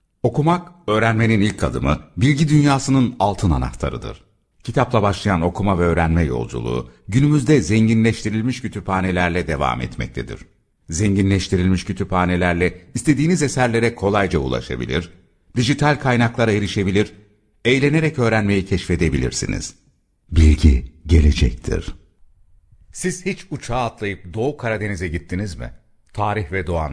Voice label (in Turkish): turkce seslendirme, erkek; erkek sesler